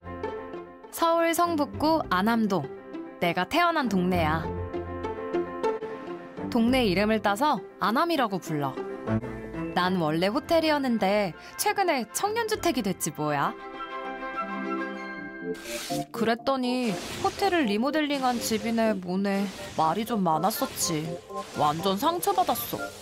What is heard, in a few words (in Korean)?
1인칭 대학생 느낌 20대